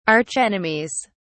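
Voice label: "archenemies", English, British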